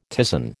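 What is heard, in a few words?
"ptisan", American English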